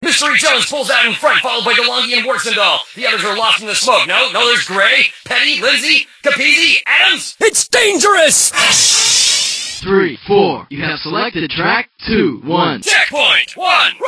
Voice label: Voice FX; San Francisco Rush Extreme Racing